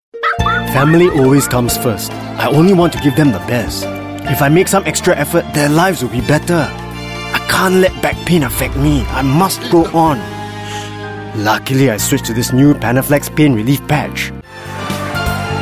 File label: Asian English; Panaflex